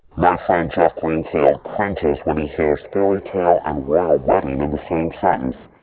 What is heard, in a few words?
to male audition, speech warping; couric